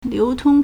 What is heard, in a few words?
"liu2tong1", Chinese